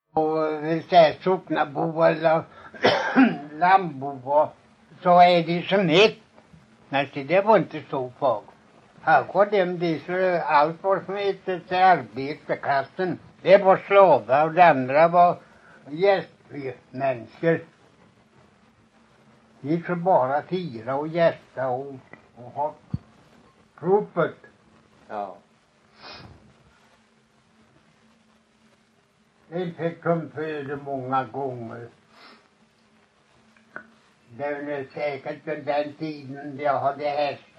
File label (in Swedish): Lommaryd, dialekter; Folkminnen